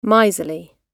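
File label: "miserly", British English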